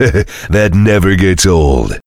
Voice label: heavy positive vocalization; tf; duke